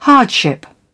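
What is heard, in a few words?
"hardship", British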